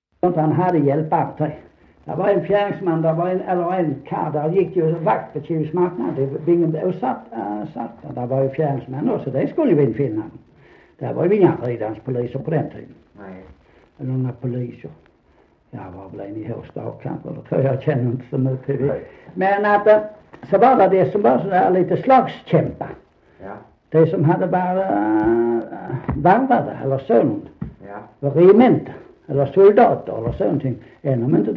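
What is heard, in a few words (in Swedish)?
Sankt Olof Skåne, dialekter; Folkminnen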